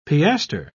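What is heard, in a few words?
"piaster", British English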